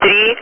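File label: Speech, Russian, air traffic control; Digits; DCS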